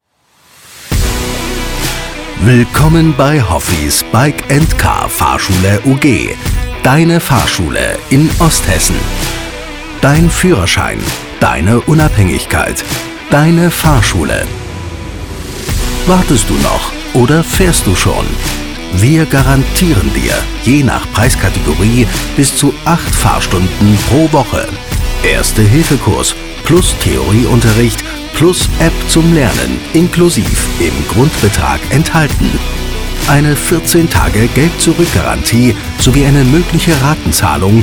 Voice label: sprecher onlinespot

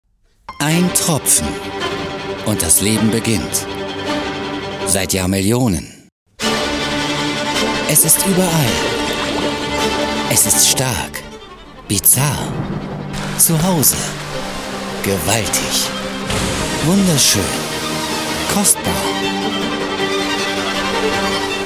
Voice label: tvc off wasser

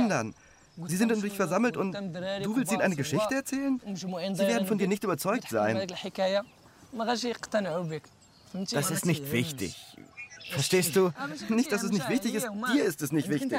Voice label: voice ober schauspieler

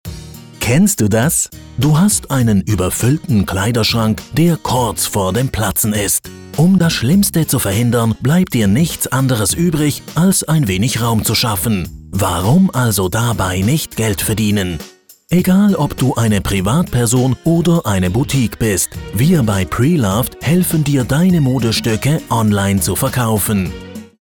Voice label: erklarfilm; preloved

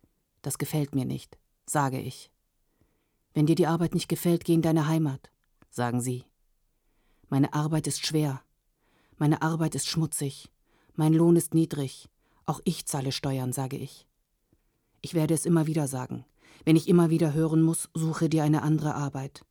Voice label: Gedicht Voiceover deutsch

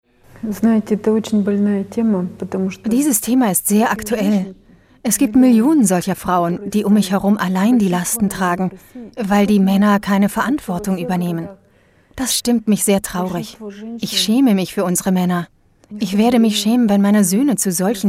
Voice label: doku russland

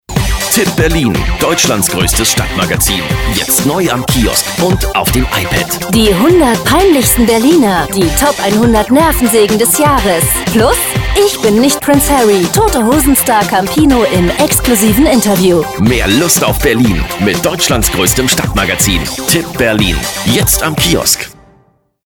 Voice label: tipp spot

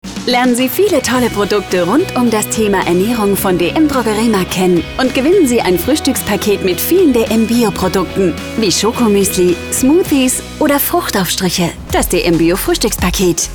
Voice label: werbung funkspot off frisch dynamisch; dm drogeriemarkt

